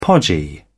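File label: "podgy", British English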